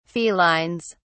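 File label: "felines", British English, dictionary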